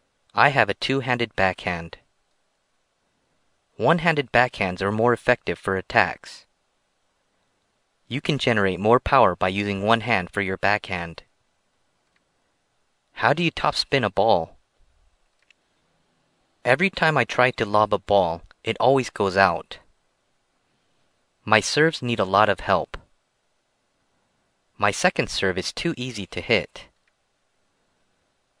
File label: Sports in General Tennis, conversation